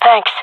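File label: thankful, female; jungle; Counter Strike Model Pack; CS:GO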